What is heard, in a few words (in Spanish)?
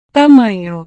"tamanho", Portugués; tamaño